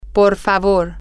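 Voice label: please, Spanish, female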